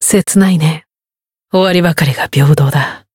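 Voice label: Voice win, Japanese; clarte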